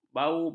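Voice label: "bau-bauan", Malay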